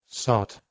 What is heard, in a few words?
"sat", Proto Germanic